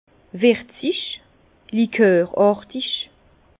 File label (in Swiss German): "wirzig", Bas Rhin; vin; liquoreux